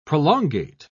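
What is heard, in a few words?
"prolongate", British English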